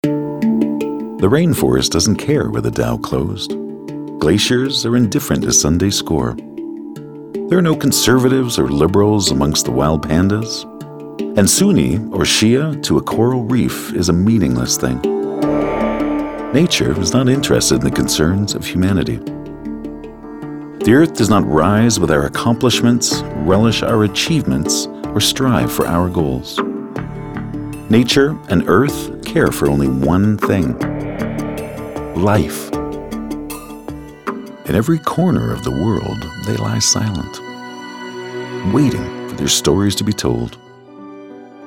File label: Narration Documentary